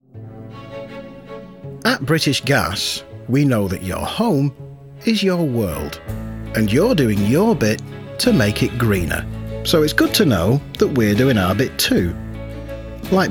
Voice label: male english northern accent wind farm commercial; british gas

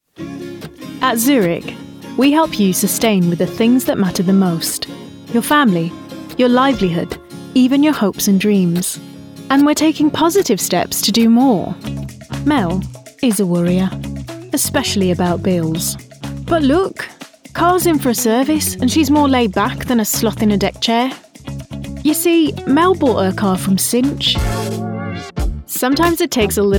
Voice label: female english compilation; english northern accents

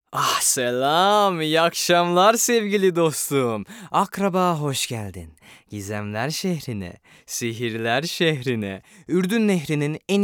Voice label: male turkish narration animation extract; aladdin

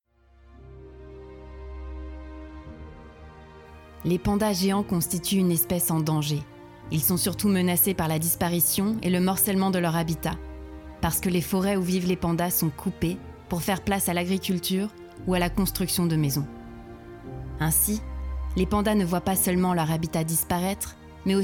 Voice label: female French panda documentary; devine voices

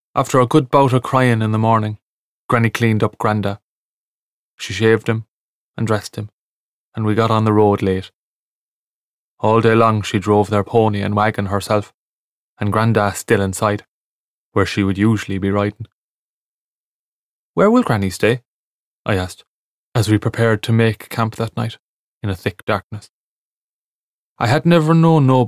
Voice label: male southern irish narration; the outside boy

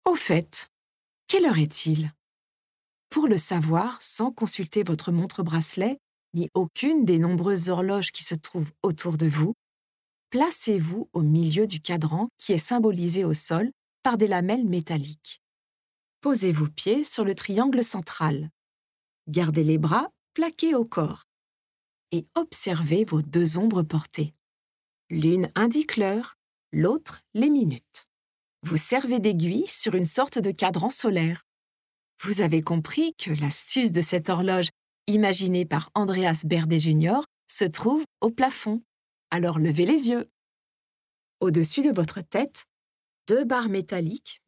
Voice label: female French museum audio guide; Devine Voices

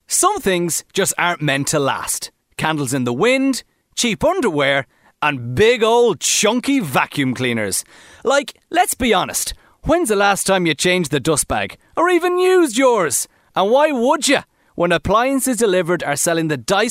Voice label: male english irish radio commercial compilation; irish southern

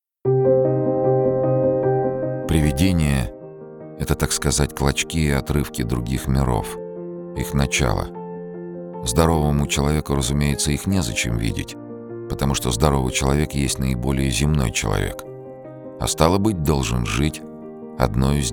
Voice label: male russian narration

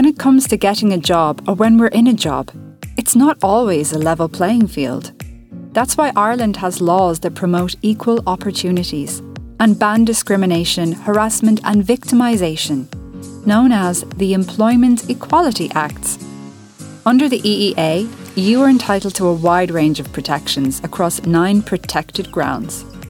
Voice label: female irish irish accent explainer video; irish southern

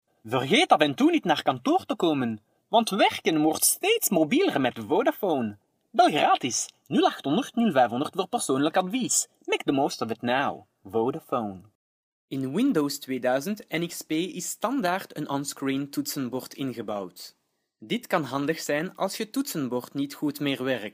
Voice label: male dutch and flemish

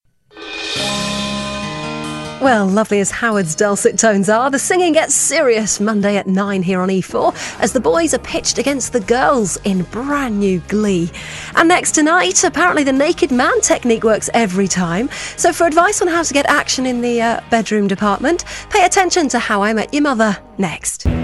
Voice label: female English continuity compilation

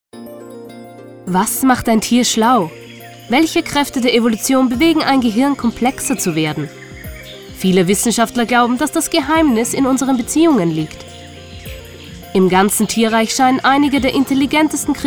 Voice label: female German documentary narration

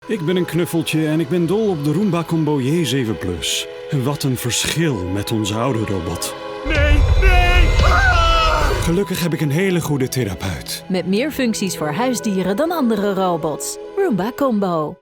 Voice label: male dutch commercial; dutch and hungarian; irobot roomba i7+

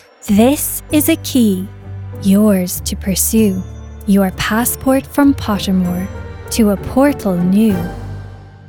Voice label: female English Irish commercial; irish southern; pottermore

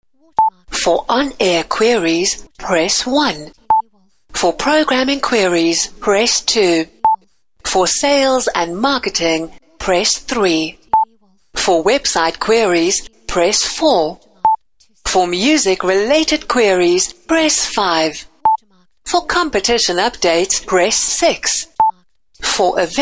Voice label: female English South African radio station ivr

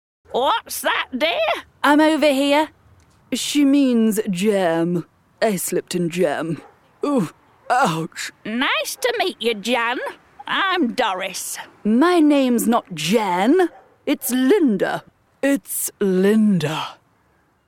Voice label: female English RP animation

